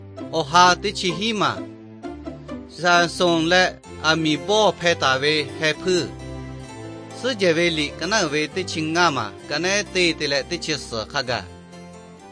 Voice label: victory through god lahu na, nt drama